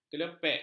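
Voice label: "kelepek", Malay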